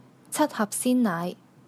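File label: "hap6 sin1naai5", Cantonese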